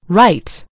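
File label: "write", American English; gcompris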